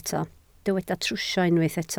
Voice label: "trwsio", Welsh